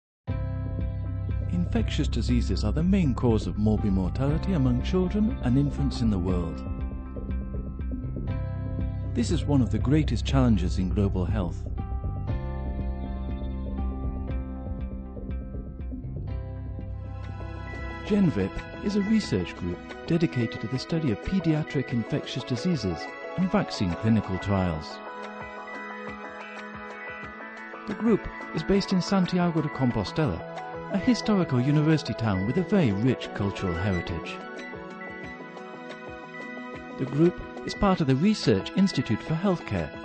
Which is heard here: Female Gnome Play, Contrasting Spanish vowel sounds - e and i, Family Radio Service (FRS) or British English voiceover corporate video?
British English voiceover corporate video